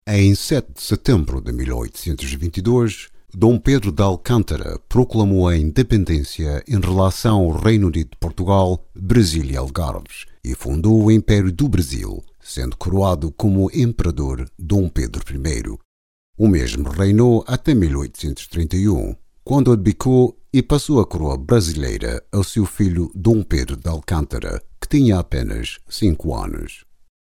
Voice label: male portuguese voice over talent upbeat, portugal